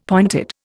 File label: "pointed", Indian; Dictionary